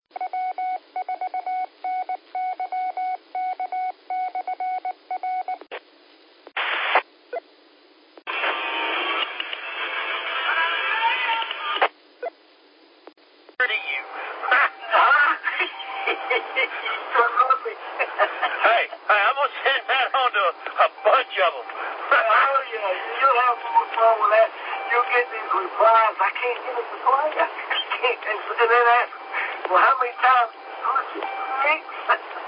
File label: qso rec simplex logic